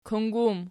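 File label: "kung", plural, Archi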